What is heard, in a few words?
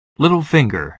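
"little-finger", English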